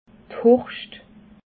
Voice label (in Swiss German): "hurscht", alsace